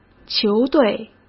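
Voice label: "球队", Mandarin Chinese; chinese flashcards; hsk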